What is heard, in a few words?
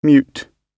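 "mute", British English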